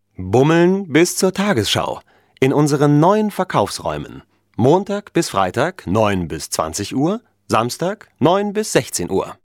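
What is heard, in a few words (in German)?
deutsch, Telefonansagen männlich; Sprecher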